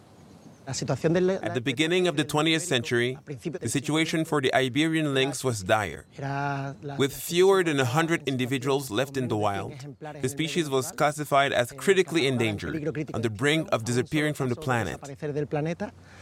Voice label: Documentary clip (English dubbing)